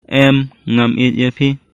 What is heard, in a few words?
Khasi